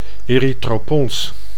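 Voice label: "erythroponse", Dutch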